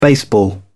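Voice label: "baseball", British English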